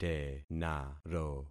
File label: "tenaro", concat syllables; Affixing experiment